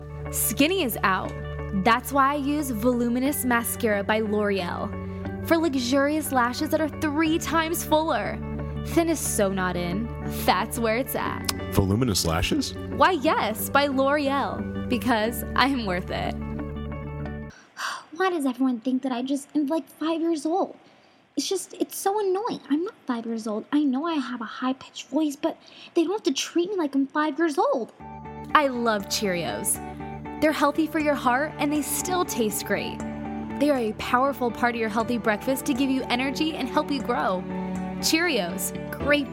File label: Commercial, Female voices